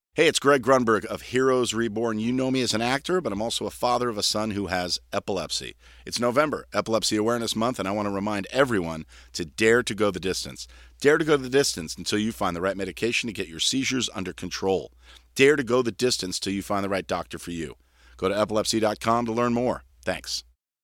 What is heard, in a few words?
spoken word, no 48v; lewitt